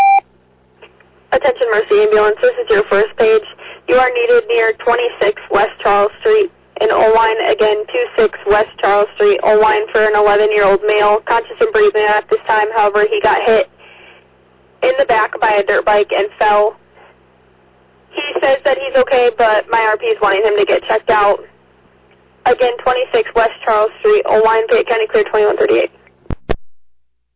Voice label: Oelwein Ambulance, dispatch

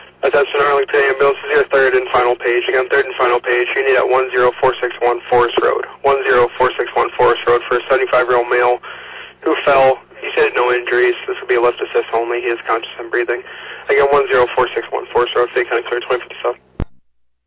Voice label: Arlington Fire, dispatch

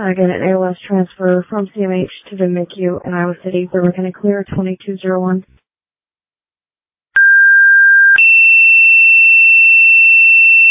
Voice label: Ambulance, dispatch; Sumner

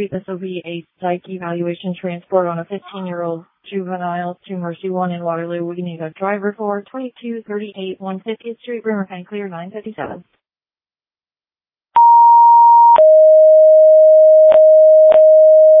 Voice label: Tripoli Fire, dispatch

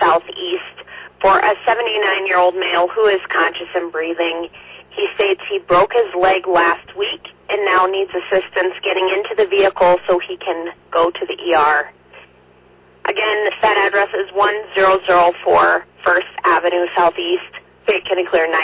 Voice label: Oelwein Ambulance, dispatch

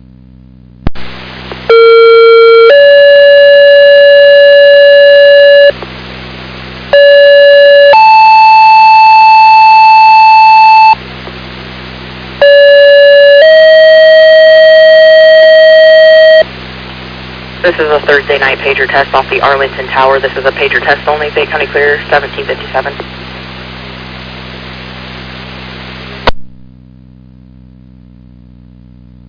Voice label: Ambulance, dispatch; Elgin

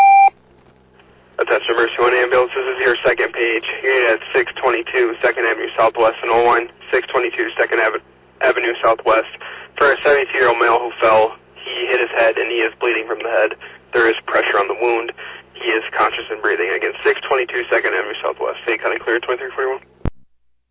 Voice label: Oelwein Ambulance, dispatch

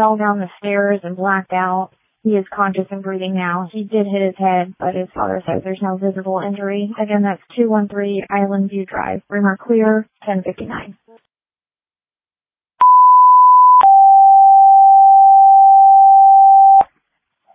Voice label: Janesville First Responders, dispatch

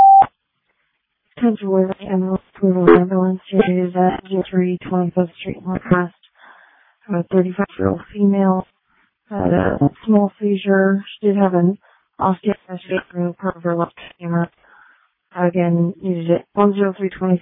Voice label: Waverly Ambulance, dispatch

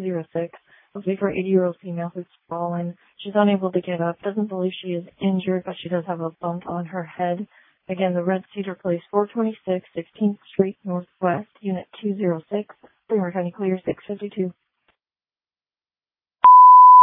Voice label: Waverly Ambulance, dispatch

